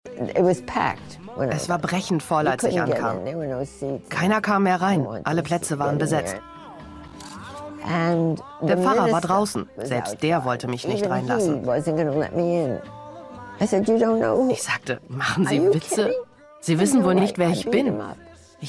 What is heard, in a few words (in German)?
overvoicing doku; arte; Sam Cooke Leben und Tod eines Soulstars; Zelda Sands